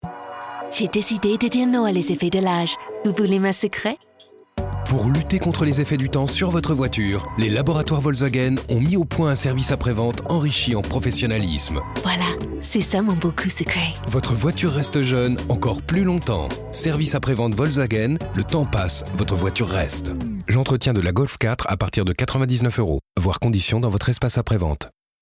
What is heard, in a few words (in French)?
Pub Radio; Volkswagon